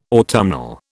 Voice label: "autumnal", British

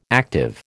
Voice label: "active", American

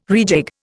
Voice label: "rejig", Indian

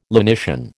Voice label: "lenition", American

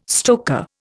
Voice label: "stoker", Indian

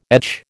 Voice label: "etch", American

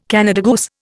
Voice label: "Canada goose", Indian

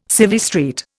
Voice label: "Civvy Street", Indian

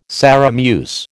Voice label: "Sarum use", American

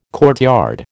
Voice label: "courtyard", American

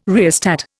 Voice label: "rheostat", Indian

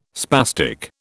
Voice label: "spastic", British

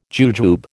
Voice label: "jujube", American

